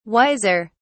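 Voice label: "wiser", English, British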